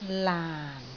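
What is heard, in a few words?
"laan4", Cantonese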